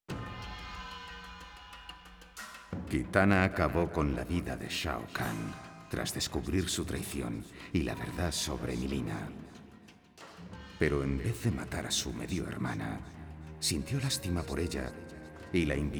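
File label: music voice-over endings Spanish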